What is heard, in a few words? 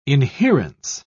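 "inherence", British English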